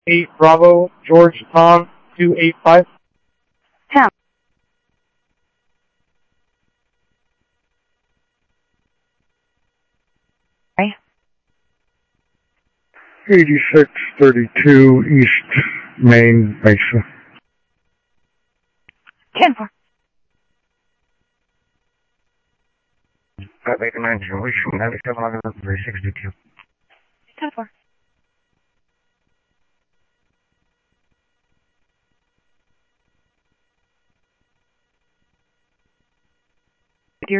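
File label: Sheriff West County, scanner audio trunk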